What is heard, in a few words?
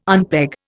"unpeg", Indian